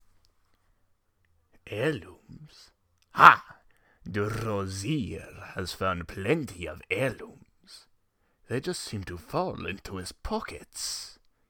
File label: khajiit, voice auditions; drozhirr